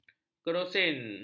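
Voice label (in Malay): "kerosin", Malay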